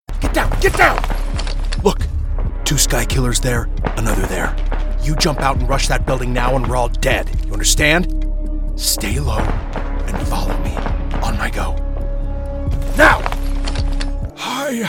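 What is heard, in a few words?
animation men video game, Voice Over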